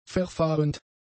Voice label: "verfahrend", German; adjectives